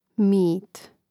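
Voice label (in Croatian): "mit", Croatian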